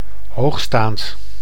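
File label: "hoogstaand", Dutch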